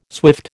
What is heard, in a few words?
"swift", American